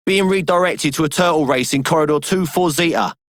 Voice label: Male Radio; Dialog; Warframe; Solaris Venus